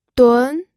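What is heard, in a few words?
"dun", pinyin, second tone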